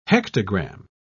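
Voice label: "hectogram", British English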